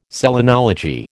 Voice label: "selenology", American

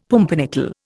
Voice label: "pumpernickel", Indian; Dictionary

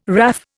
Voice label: "WRAF", Indian

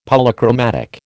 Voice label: "Polychromatic", American

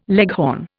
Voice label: "leghorn", Indian

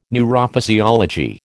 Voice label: "neurophysiology", American

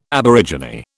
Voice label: "aborigine", British

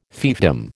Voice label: "fiefdom", American

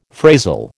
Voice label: "phrasal", American

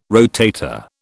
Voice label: "rotator", British

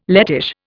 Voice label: "Lettish", Indian

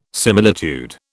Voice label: "similitude", British

